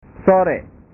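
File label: "sore", Indonesian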